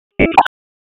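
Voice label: Police Spec Ops Tac, radio; Raleigh; Tac 5; p25; wake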